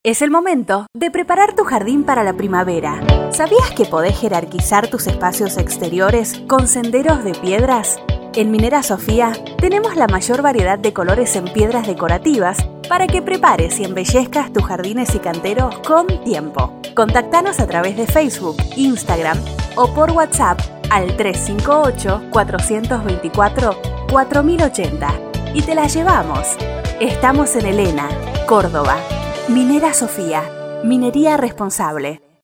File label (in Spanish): SPOT; Minera Sofia Jardines